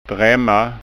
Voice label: "dramma", skanska

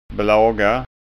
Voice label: "blaga", skanska ord

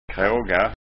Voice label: "kraga", skånska ord

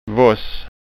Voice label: "voss", skanska

